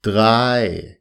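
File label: "drei", German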